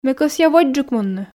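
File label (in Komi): ме кӧсъя водзджык мунны, Komi, female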